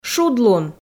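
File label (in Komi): "шудлун", Komi, female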